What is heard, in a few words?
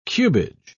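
"cubage", British English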